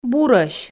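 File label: "бурӧсь", Komi, female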